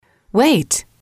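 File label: "wait", English, female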